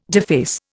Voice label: "deface", Indian